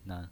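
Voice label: "nan", pinyin voice, Mandarin Chinese, neutral tone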